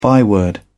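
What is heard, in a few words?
"byword", British English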